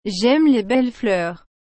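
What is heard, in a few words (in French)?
"J'aime les belles fleurs", French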